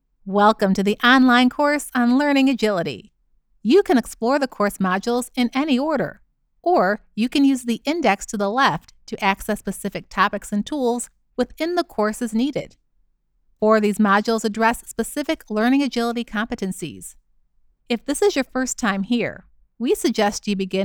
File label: Educational Informative Narrator Professional